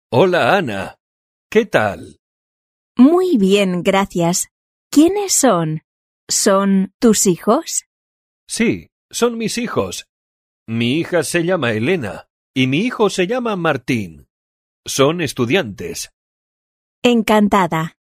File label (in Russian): urok dialog